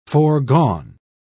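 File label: "foregone", British English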